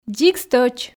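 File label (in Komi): "дзик стӧч", Komi, female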